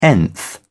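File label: "nth", British English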